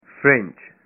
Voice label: "French", punjabi